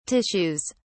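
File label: "tissues", British English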